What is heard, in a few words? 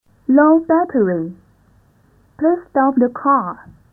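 8k 8nonPCM English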